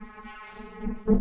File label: Evil Telepathic Girl; Vocals - Humanoid